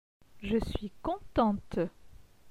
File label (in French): "je suis contente", francais